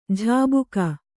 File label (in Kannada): "ಝಾಬುಕ", Kannada